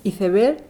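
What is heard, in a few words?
"iceberg", Spanish; Madrid; [iθeˈβɛɾ]; lehnwort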